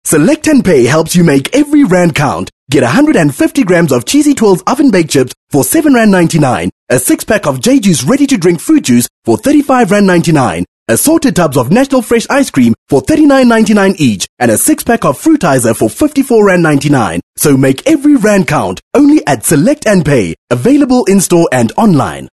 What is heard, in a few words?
English Hardsell